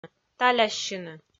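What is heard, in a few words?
"таляссьыны", Komi, female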